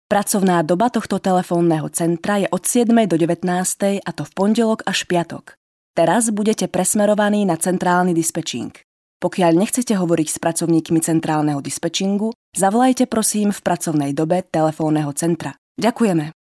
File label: Female Slovak ivr